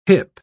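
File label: "hyp", British English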